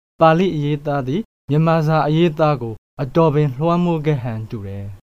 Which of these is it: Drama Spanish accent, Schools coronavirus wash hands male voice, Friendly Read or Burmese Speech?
Burmese Speech